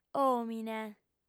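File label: "òmine", Sardinian, female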